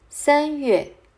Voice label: "Mars" Mandarin

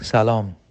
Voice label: Bonjour Farsi

